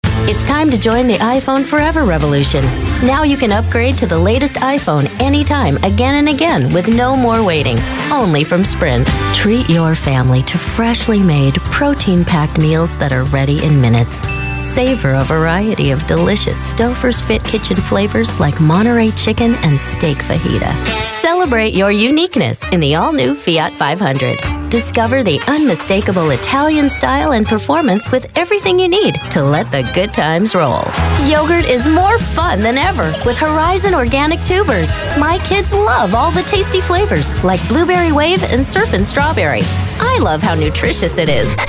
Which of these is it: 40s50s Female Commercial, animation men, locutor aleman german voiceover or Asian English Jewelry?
40s50s Female Commercial